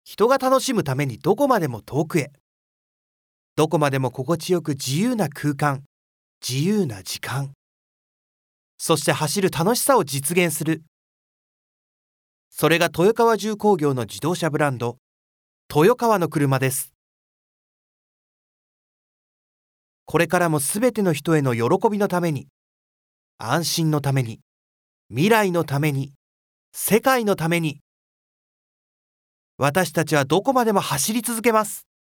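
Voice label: narration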